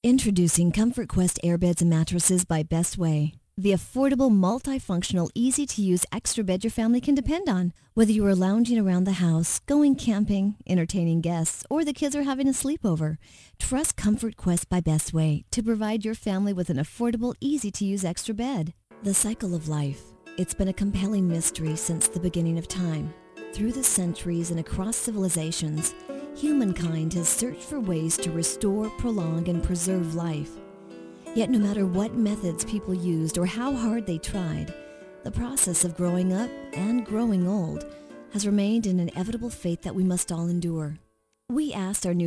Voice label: Narration, Female voices